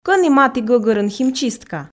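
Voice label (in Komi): кӧні матігӧгӧрын химчистка, Komi, female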